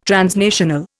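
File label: "transnational", Indian English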